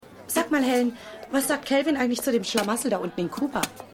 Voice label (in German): Frau Auf Party; Eve Und Der Letzte Gentleman